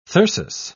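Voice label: "thyrsus", British English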